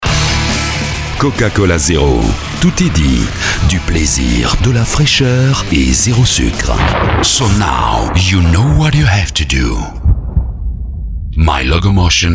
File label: Commercial